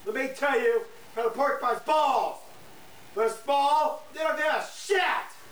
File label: Chat